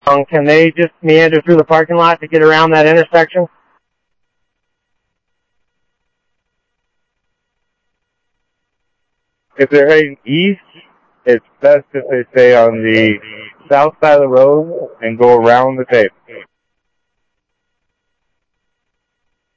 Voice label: Mutual Aid, scanner audio; ThompsonPeakHigh; OCEAN 6

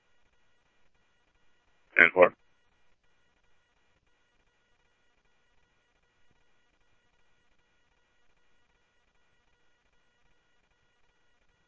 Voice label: PD Police, scanner audio; ThompsonPeakHigh; SCTSDLE PD - PD2 ScottsdalePolice

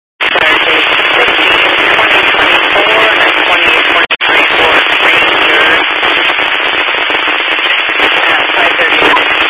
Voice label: Highway Patrol, scanner; DPS

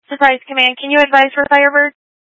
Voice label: Mutual Aid-Intersystem Interop B, scanner

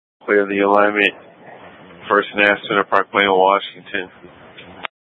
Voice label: Public Transit System Light Rail Ops, scanner

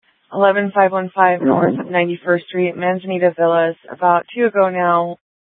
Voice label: Scottsdale PD Nth Dispatch; Regional Wireless Cooperative (RWC); Thompson Peak High

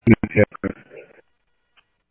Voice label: Phoenix PD, scanner; ThompsonPeakHigh

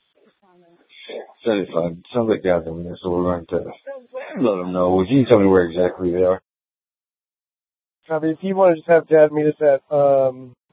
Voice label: Mesa PD Central Dispatch, scanner; TOPAZ Regional Wrlss Cooperative (TRWC); Mesa Simulcast

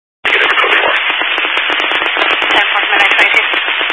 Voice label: Highway Patrol, Phoenix Metro East Area, scanner

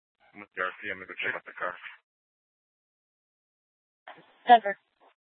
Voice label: Scottsdale PD Sth Dispatch, scanner

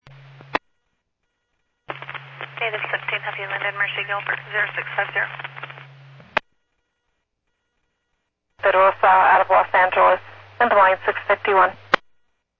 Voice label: Medevac, scanner; NativeAirAmb

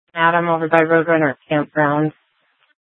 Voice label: Sheriff, scanner P25; Shrf Lakes

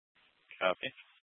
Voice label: Mesa PD Dispatch; Fiesta

